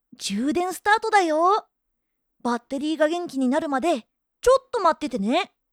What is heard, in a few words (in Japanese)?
充電開始, かわいい